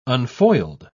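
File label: "unfoiled", English, uk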